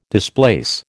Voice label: "displace", American